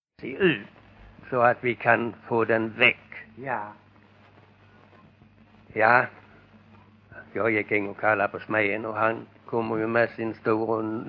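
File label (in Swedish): Bosjökloster Skåne, dialekter; Folkminnen; Svenska sagor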